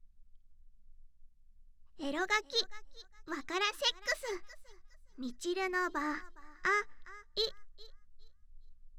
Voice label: タイトルコール

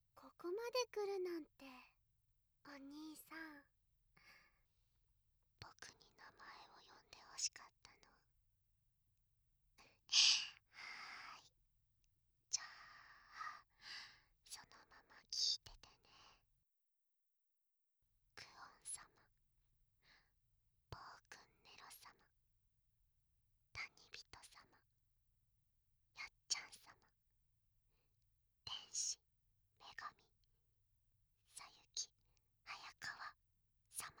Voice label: スペシャルプラン加入者・お名前読み上げトラック

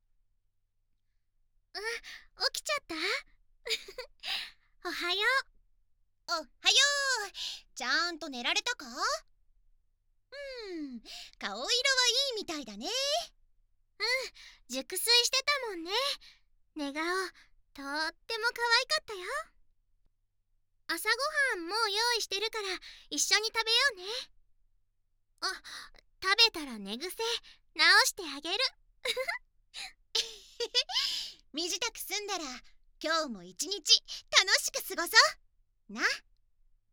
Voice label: 目覚ましボイス, ASMR

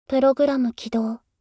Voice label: プログラム起動, システムボイス; おまけ (Windows等向けシステムボイス)

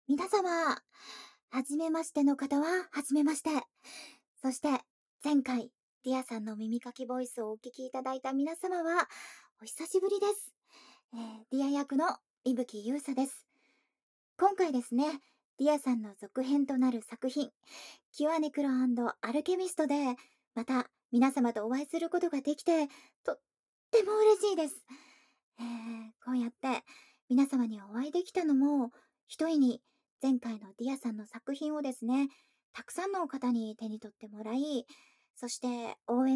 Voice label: PRボイス

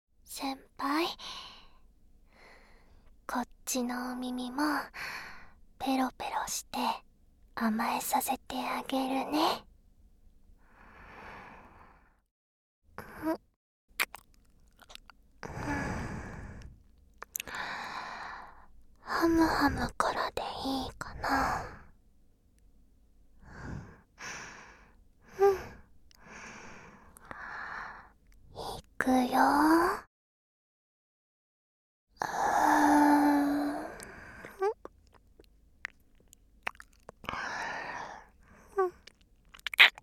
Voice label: 右 声のみ ノイズ除去なし

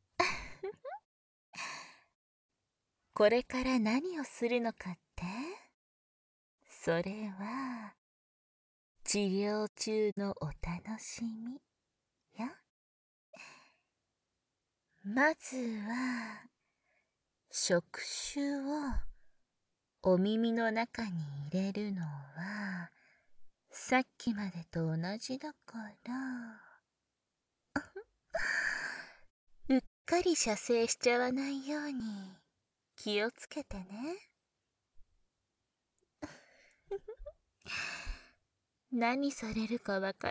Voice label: ブレインマッサージ（バイノーラルマイク 効果音なし）

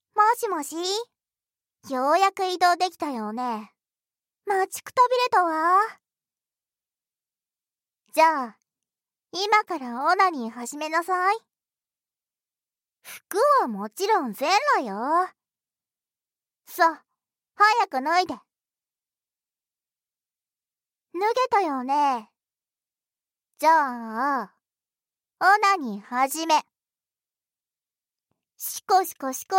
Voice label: 朝からボイス, ASMR; ４日目; Lから流れます

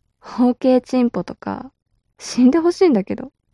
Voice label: mob女子台詞

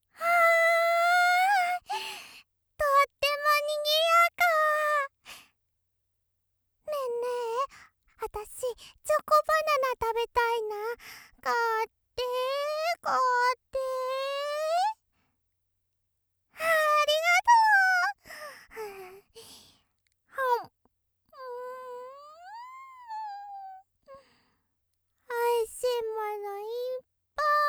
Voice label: ロリ お祭りだよ

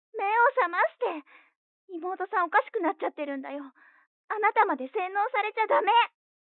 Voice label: 彼女の電話台詞, ASMR

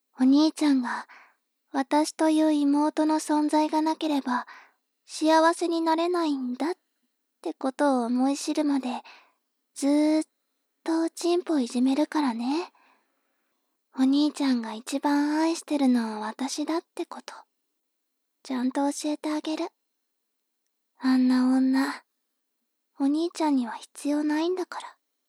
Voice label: 妹 台詞

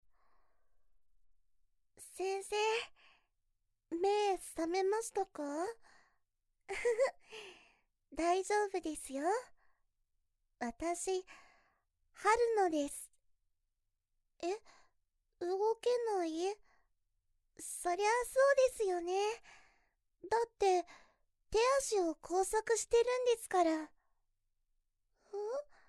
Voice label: 清楚系と関西弁のクソガキ二人に耳舐め言葉攻め

